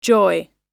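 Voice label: "joy", English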